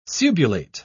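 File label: "subulate", British English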